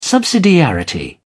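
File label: "subsidiarity", British English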